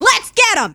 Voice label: scout battlecry, femscout

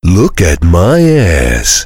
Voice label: heavy battlecry; tf; duke

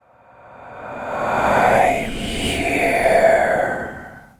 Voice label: im here, zombie; dod

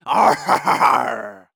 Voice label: harhar uk; hl2dm

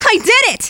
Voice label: scout cheers, femscout

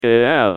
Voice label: taunt head exert; pyro

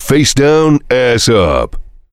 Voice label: heavy cheers; tf; duke

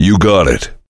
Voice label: heavy yes; tf; duke

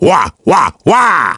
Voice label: happy; wario

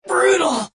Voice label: pain severe; Adachi

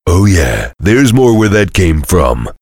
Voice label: heavy taunts; tf; duke

